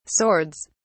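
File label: "swords", British English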